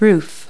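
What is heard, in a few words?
"roof", English word; Multi-Lingual Talking Picture Dictionary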